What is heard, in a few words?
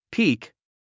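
"peak", English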